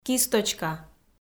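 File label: "кисточка", Komi, female; kpv; audio dict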